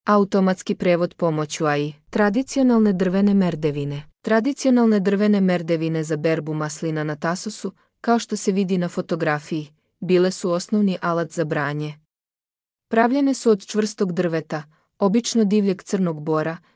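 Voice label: serbian, Audio guided tour; Σκάλα; То је карактеристичан пример сеоске традиције и народне уметности Тасоса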